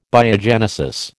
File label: "Biogenesis", American